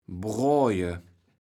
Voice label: "broaje", kaevels platt

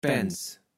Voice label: "Pänz", kaevels platt

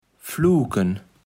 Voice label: "fluken", kaevels platt

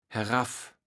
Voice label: "heraff", kaevels platt

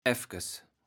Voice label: "äfkes", kaevels platt

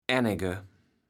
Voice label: "ännege", kaevels platt

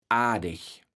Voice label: "ardeg", kaevels platt

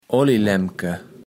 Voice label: "Ollilaempke", Platt

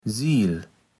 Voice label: "Siel", platt

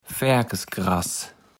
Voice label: "Färkesgras", Platt

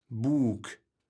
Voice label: "Buuk", kaevels platt

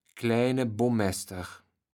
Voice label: "kläne Bommäster", kaevels platt

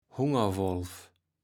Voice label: "Hungerwolf", kaevels platt

